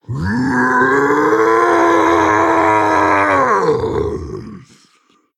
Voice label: Male Orc Hero Power